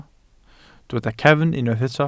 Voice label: "cefn", Welsh